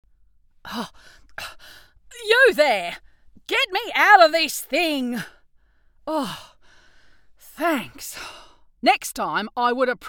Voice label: Executive Character Gaming; Raft

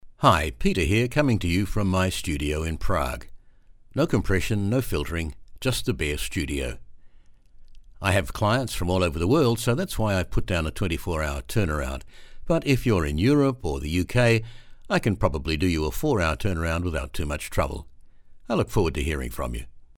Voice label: studio sample

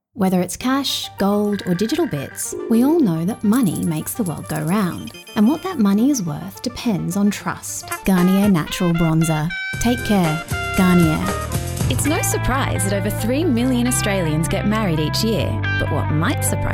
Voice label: Aus Accent

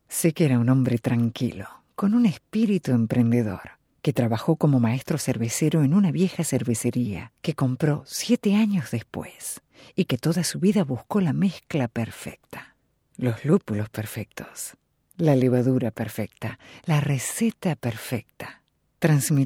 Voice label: Commercial Narration Soft Medium sell

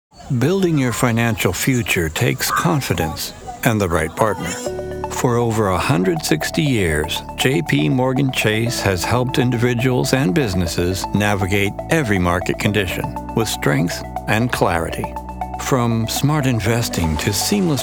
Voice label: Radio Spot; JPMorganChase

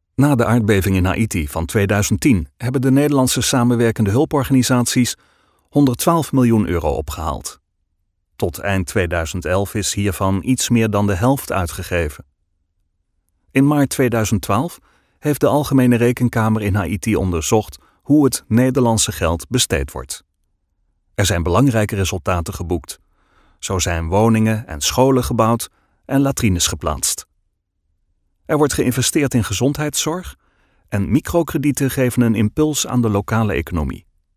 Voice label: corporate matter of fact

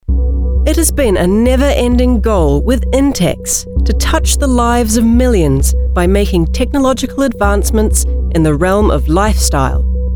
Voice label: Soft Sell Corporate read

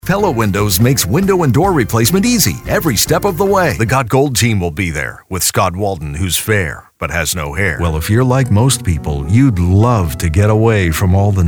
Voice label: Radio

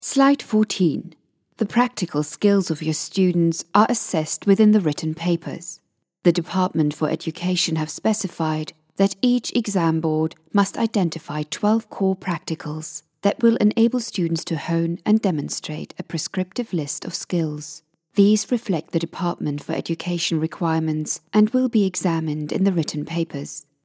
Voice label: e-learning

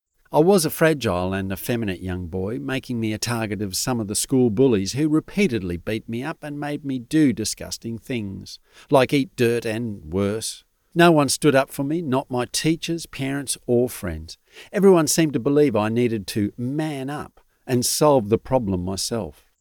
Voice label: Audiobook Character

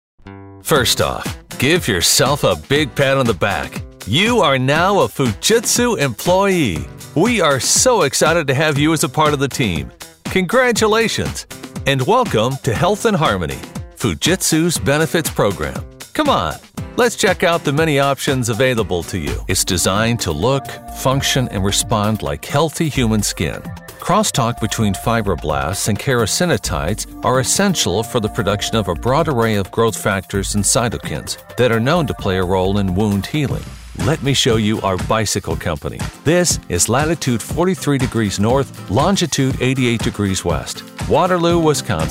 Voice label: eLearning

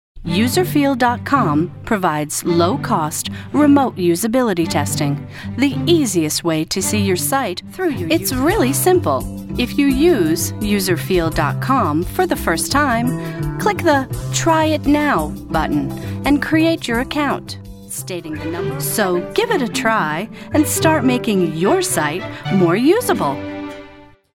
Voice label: narration; Userfeel

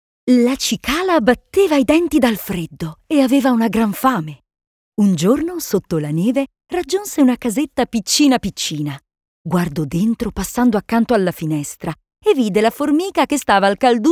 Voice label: Kid Story

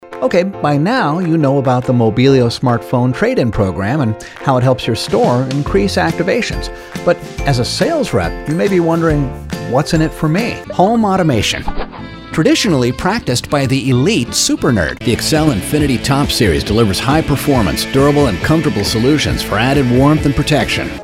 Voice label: Conversational

